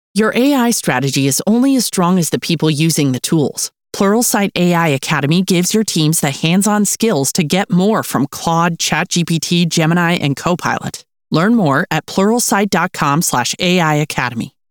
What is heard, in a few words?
Ad; Pluralsight